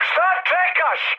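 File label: Serbian attack position mood high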